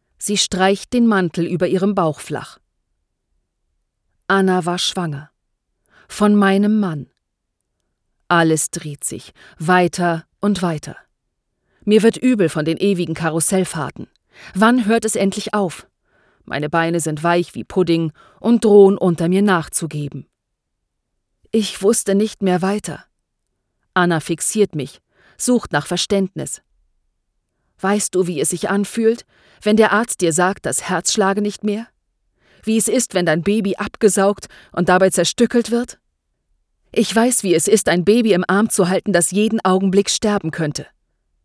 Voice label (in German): Ho rbuch